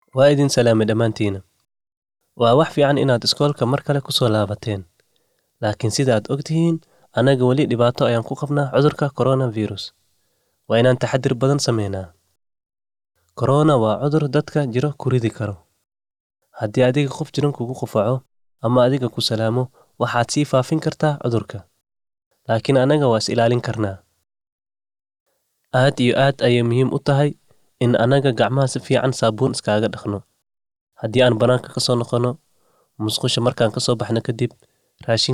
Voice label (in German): Häusliche Gewalt Somalisch Vox; MHM Corona